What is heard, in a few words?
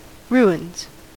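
"ruins", American English; pronunciations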